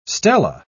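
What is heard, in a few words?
"stela", British English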